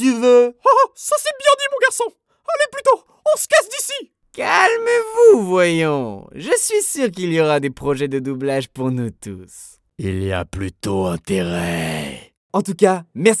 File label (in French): voix de personnages